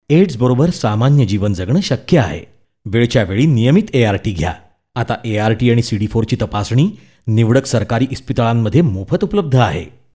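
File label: Marathi, General; GoLocalise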